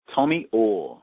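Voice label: Australian; mundial2014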